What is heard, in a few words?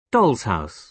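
"doll's house", British English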